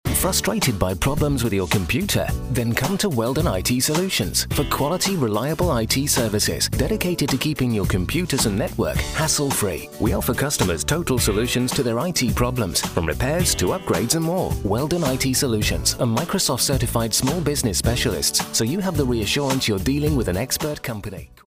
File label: male